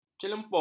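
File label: "celempong", Malay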